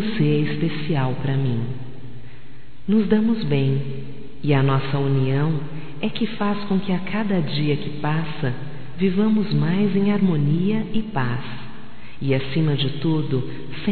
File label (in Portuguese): Homenagem a Irmã Feminino, Telemensagens, Só Voz; Telemensagens 2017